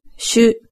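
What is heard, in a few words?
"shu", Japanese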